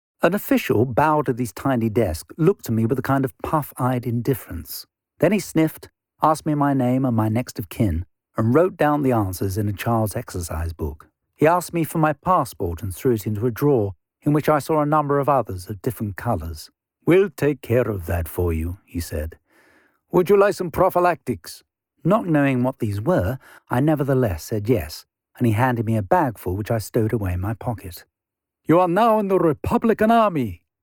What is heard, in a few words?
audiobook